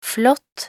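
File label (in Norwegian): "flott", Norwegian; talkbook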